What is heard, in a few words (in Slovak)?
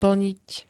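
"plniť", Slovak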